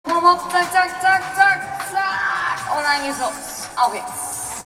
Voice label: "oktober", shouts; Best Service; Hyper Dance

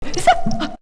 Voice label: Female; 90 sSampleCDs; Coldcut's Kleptomania VOL1

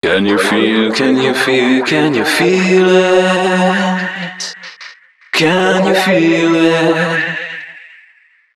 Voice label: male d# 130, short hooks, Dance Voice; Mutekki Media; Dance Ultra Voice VOL-1

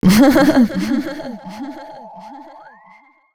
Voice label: female c# 138, Dance Ultra Voice; Mutekki Media

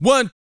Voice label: COUNT, Vocal, R&B & Dance; ILIO

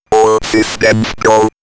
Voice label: Robot All Systems Go, robot vox; X-Static Goldmine

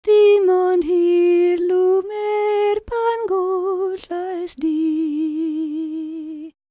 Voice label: WELSH F, World Voices

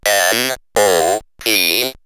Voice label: Robot N, O, P, robot vox; X-Static Goldmine

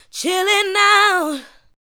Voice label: ADLIBS 90; Ueberschall; Jam Box

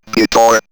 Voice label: Robot Guitar, robot vox; X-Static Goldmine

